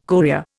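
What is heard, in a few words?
"courier", Indian English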